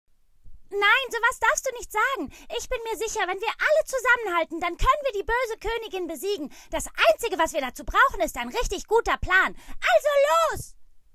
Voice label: animation, german, female

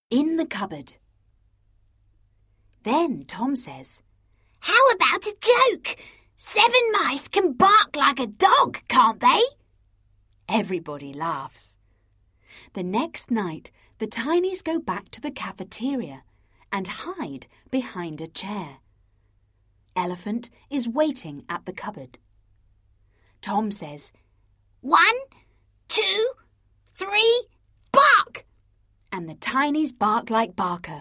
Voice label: Narracion (ninos), ENGLISH UK FEMALE; narrative

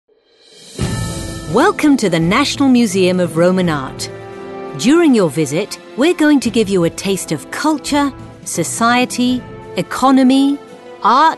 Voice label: audioguide, English UK, female

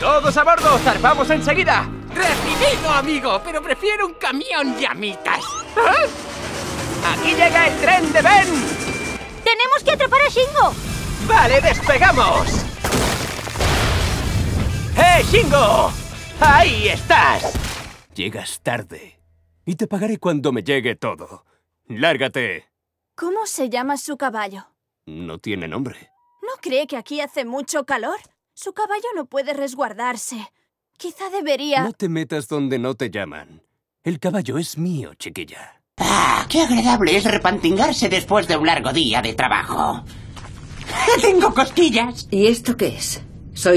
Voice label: DUBBING, Spanish, male

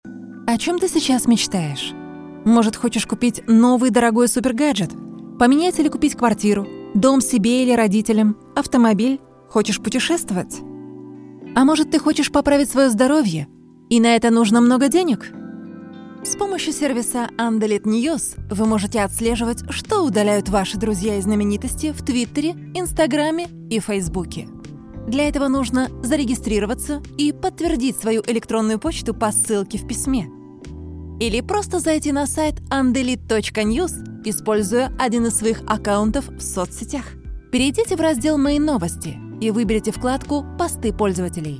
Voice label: RUSSIAN, WOMEN

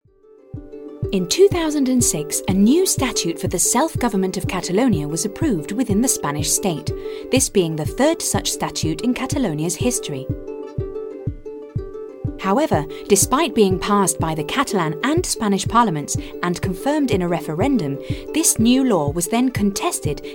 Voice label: Docu, ENGLISH UK, FEMALE; institutional; Vote Catalonia

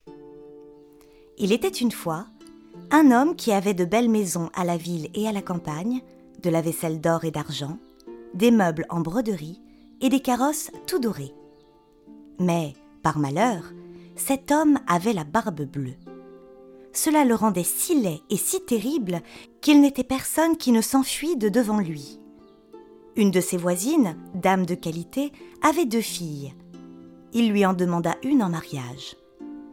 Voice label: audiobook, french, female

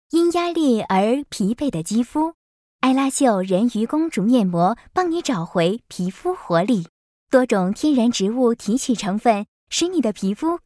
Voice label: CHINESE, FEMALE

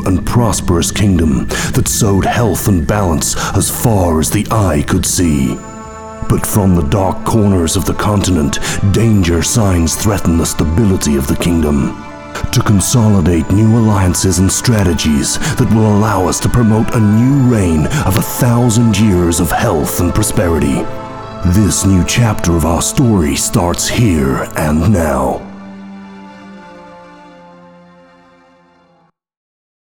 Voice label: TRAILER EPIC STYLE, ENGLISH US MALE

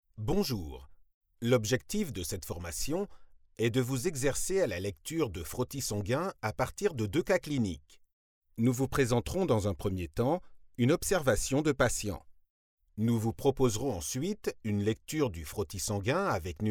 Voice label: informative, french, male

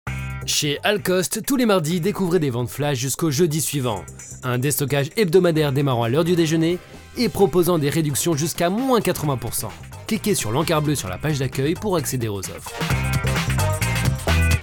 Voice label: commercial, French, male

